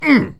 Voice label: hit, pain; player; Dystopia